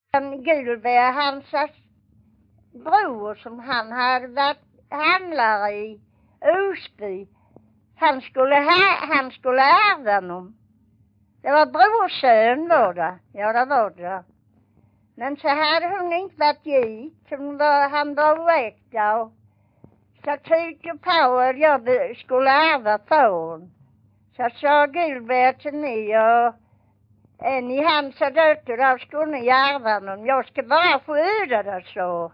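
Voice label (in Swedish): Vånga Skåne, dialekter; Folkminnen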